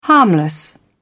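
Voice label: "harmless", British English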